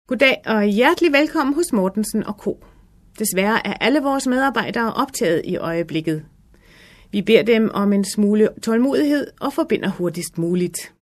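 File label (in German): dänisch, Telefonansagen, weiblich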